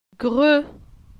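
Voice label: "Gre", French; phonetique; la syllabe e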